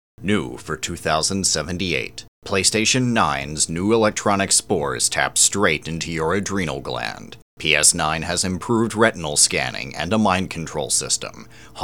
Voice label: Product videos, English, North America, Male, Middle